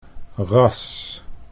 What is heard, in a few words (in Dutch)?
"ras", Dutch